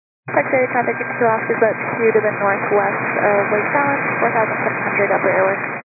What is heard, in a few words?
122 925MHz, scanner radio